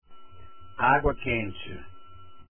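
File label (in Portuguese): "agua quente", Portuguese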